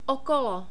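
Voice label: "okolo", Czech, male; short vowels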